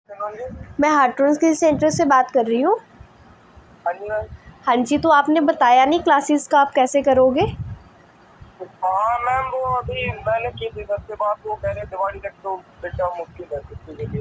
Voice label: call recording